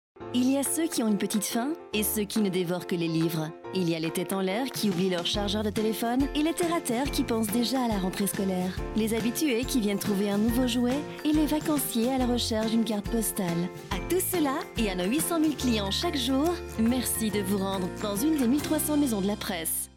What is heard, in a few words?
Commercial French